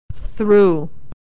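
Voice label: "through", American English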